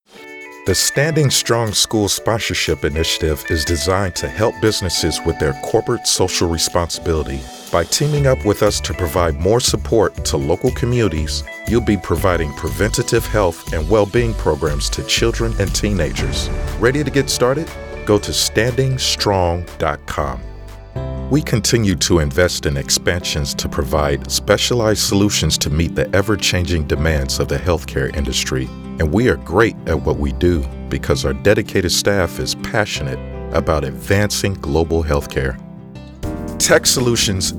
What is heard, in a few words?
voice over